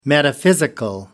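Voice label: "metaphysical", English, British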